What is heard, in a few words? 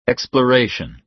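"exploration", American English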